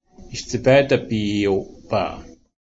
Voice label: creator, Blackfoot

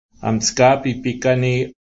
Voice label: "southern peigan", Blackfoot

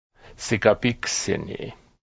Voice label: smallpox, Blackfoot

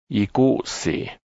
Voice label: parent, Blackfoot; Iiko’siiksi

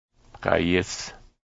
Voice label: dry meat, Blackfoot

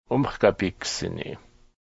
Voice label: smallpox, Blackfoot

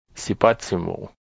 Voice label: sweetgrass, Blackfoot

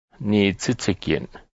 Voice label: "moccasin", Blackfoot; iihtayo'kimao'p

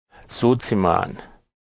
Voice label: rawhide container, Blackfoot

